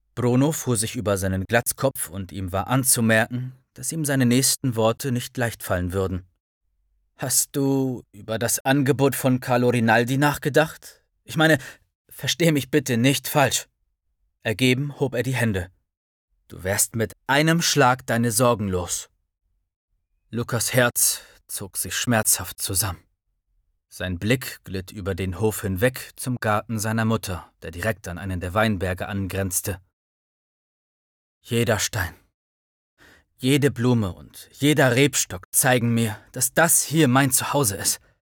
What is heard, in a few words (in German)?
Hörbuch; AMORE MIA CHAOS KÜSSE UND CHIANTI